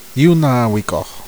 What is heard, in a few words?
"wolf", Zuni language